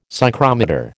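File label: "psychrometer", American English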